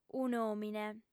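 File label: "un'òmine", lingua sarda, female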